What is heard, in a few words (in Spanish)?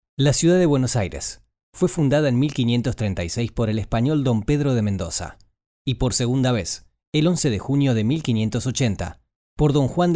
Rioplatense